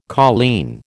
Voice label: "colleen", American